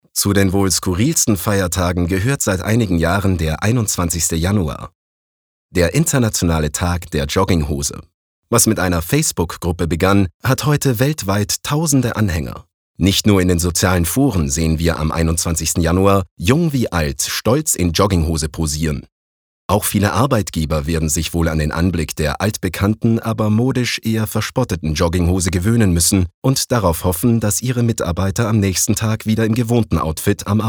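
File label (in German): Kommentar Freundlich; Feiertag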